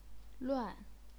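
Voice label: "luan", fourth tone, chinese pinyin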